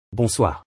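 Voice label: "bonsoir" French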